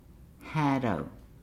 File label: "four hundred", Nukuoro